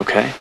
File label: "okay", expletives